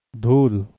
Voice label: "dhuul", Siraiki

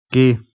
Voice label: "gae", Scots

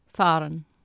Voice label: "faran", Anglo Saxon

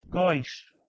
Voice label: "ghoisd" PIE

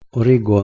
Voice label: "orego", Ancient Greek

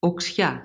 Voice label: "oksia", Modern Greek

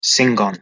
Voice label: "singan", Anglo Saxon

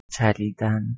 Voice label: "charidan", Persian

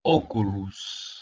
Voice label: "oculus", Latin

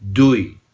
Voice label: "dui", Romani

